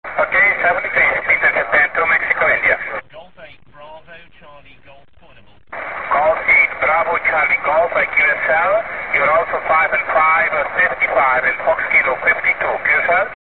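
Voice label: amateur radio contact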